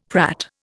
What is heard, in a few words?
"prat", Indian; Dictionary